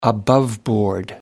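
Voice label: "above board", English, British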